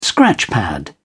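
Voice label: "scratchpad", British English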